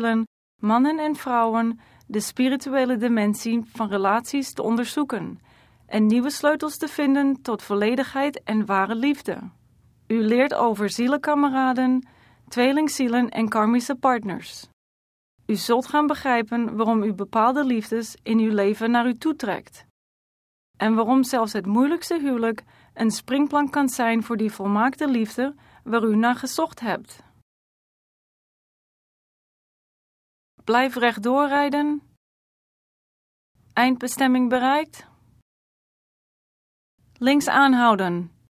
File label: Dutch